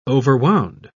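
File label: "overwound", English, British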